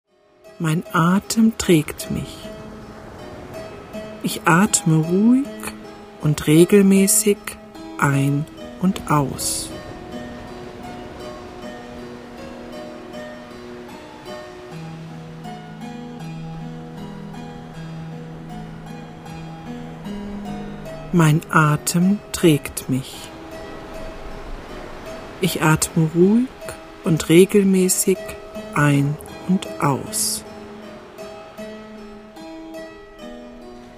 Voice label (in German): power meditation kurzversion weibl stimme